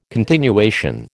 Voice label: "continuation", American

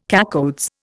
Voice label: "cacoethes", Indian

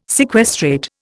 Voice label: "sequestrate", Indian

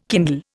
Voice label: "kindle", Indian

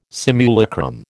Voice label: "simulacrum", American

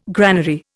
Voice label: "granary", Indian

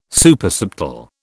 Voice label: "Supersubtle", British

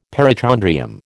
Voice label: "perichondrium", American

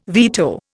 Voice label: "veto", Indian

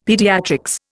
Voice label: "pediatrics", Indian; Dictionary

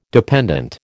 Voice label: "dependent", American

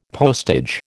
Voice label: "postage", American

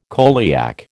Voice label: "coeliac", American

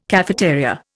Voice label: "cafeteria", Indian

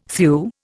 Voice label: "thew", Indian

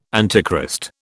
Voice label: "Antichrist", British

